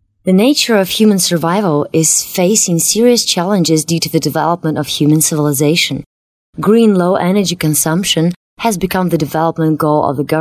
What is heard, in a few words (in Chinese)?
英语女声; 外语